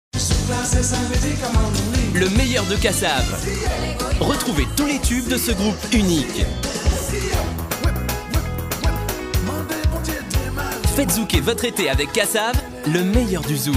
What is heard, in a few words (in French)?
spot disque, demos voix